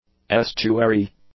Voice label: "estuary", English; nouns